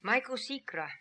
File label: "Maikosikra", pronounciations; Fourth Way; Beelzebub